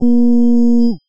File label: "U", female, speech